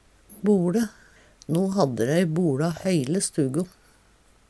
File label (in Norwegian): "boLe", Numedalsmål